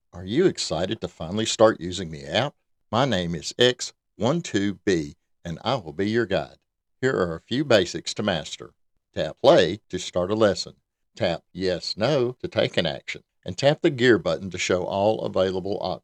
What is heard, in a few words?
Animation Video Game Voice Sample